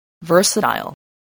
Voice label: "versatile", spelling